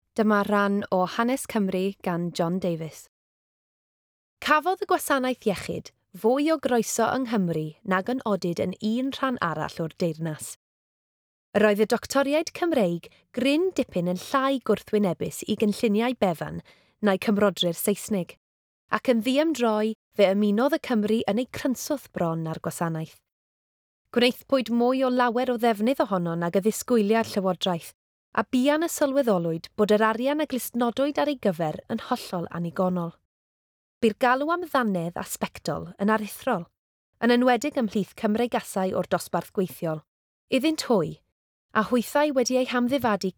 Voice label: Book Read Welsh